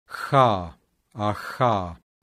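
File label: voiceless velar fricative; IPA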